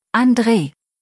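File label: "Andre", German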